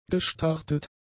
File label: "gestartet", German; adjectives